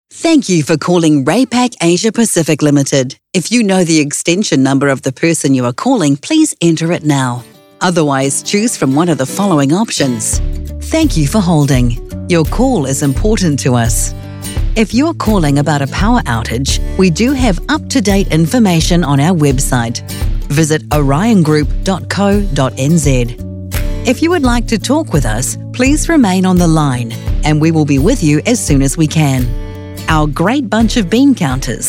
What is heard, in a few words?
NZ PHONE